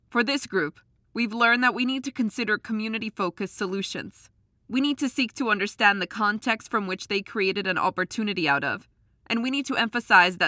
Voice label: english american corporate videos; professional bilingual (English and Spanish) voice actor